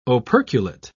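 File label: "operculate", British English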